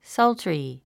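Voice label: "sultry", English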